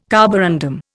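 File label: "carborundum", Indian English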